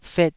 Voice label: "fet2", taiwanese syllables

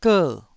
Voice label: "ger4", Taiwanese syllable

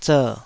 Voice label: "zer3", Taiwanese

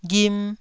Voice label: "ghim1", Taiwanese syllable

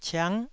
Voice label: "ciang9", taiwanese

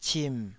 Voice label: "cim3", Taiwanese

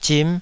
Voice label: "zim5", Taiwanese

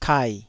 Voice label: "kai3", taiwanese syllables

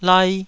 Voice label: "lai2", Taiwanese syllable

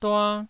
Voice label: "dua1", taiwanese syllable

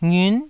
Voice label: "ngiun9", Taiwanese syllable

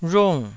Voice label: "rhung4", taiwanese

